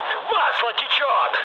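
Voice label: Russian damaged oil mood med, speech; War Thunder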